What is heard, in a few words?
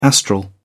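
"astral", British English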